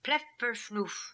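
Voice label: "Plef Perf Noof", pronunciations; Fourth Way; Beelzebub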